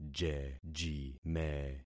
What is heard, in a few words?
"jejime", concat syllables; Affixing experiment